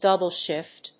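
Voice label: "double shift", English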